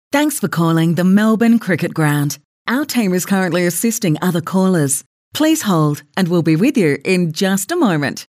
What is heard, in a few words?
IVR; onhold